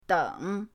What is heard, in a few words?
"deng3", pinyin